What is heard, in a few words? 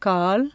"leg", Tamil consonants; JIPA